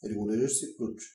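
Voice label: wrench adjustable description Bulgarian; tuxpaint-stamps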